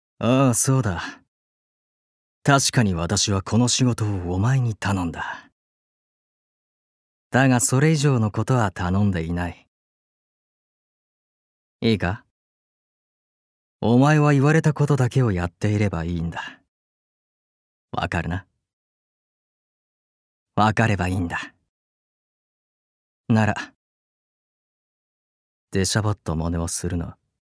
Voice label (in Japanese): 外画